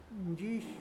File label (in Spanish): "leña", Huave